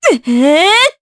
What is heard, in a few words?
Vox Casting jp; Juno; kings raid